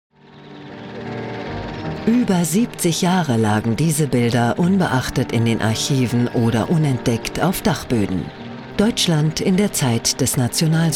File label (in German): Doku; LOFT VOICES